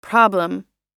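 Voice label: "problem", US English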